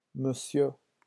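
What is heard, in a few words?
"monsieur", French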